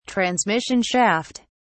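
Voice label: "transmission shaft", British English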